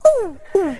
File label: "oh oh" male; ooh ahh